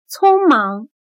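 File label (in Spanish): "匆忙", chino mandarin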